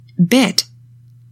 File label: "bit", English; 3000 words